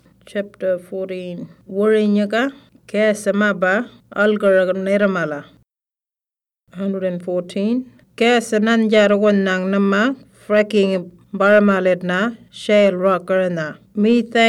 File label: Western Arrarnta; Fracking